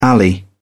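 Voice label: "alley", British English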